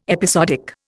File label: "episodic", Indian